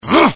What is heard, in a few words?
anger, dialogue IT; mohaa; attack